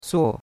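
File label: "suo4", Mandarin Chinese; Cours-de-Chinois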